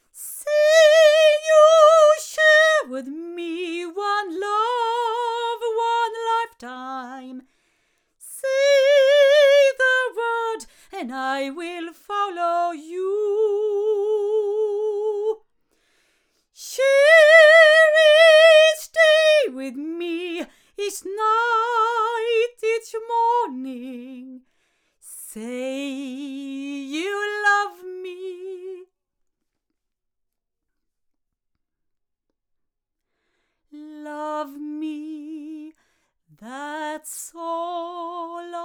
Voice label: JZ BlackHole SE female, microphones